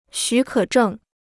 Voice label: "许可证", Chinese